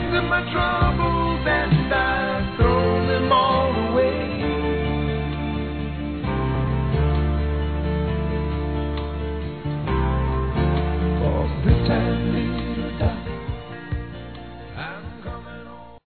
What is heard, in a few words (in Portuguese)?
Aniversário Avó Infantil Feminino; Aniversários; Telemensagens